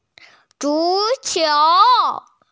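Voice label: "zu qiu", Mandarin Chinese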